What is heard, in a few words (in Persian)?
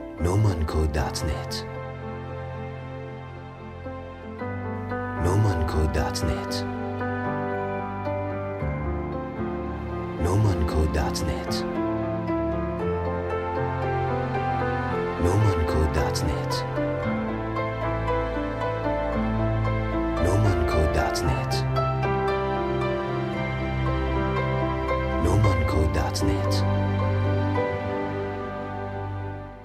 منشی تلفنی فارسی برای ساعات غیر اداری; متن منشی تلفنی فارسی برای ساعات غیر اداری شرکت راهبر